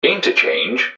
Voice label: "interchange", english man, subway announcers; MetrostroiAddon